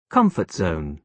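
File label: "comfort zone", British English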